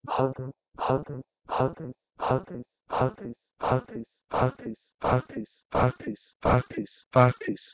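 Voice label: Proto-Indo-European bheh2gh to Aeolic Greek pachys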